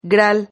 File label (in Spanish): "gral", Silabas